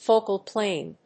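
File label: "focal plane", English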